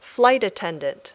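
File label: "flight attendant", English; Transportation (Transporte)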